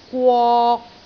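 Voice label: "gwok3", Cantonese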